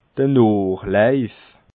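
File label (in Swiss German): "noochlaif", Alsatian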